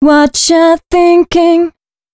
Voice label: female a 140, short hooks, Dance Voice; Mutekki Media; Dance Ultra Voice VOL-1

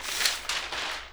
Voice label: NEWS; AKAI Anime Sound FX

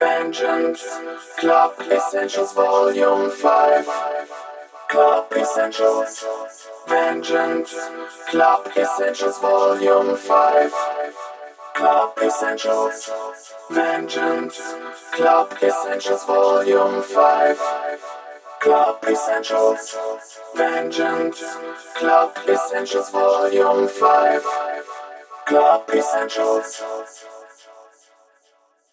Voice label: 140 BPM Intro Vocoder Voice; Vengeance Essential Clubsounds Vol.5; VEC5 Demo Constr Kits; Root E

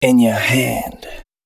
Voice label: male, spoken hooks; Mutekki Media; Dance Ultra Voice VOL-1

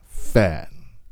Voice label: PHATT, Male Vox; Hip Hop Nation

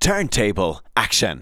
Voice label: "TURNTABLE ACTION", SPOKEN; Techno Trance Essentials

